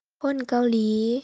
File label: korean